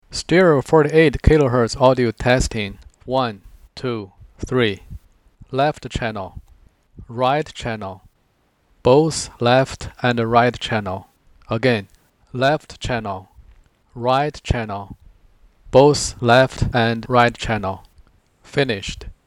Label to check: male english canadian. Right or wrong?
Wrong